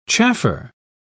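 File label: "chaffer", British English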